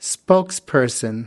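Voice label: "spokesperson", American English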